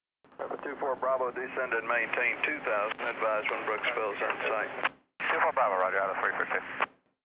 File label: chatter; rigs of rods